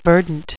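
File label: "verdant", English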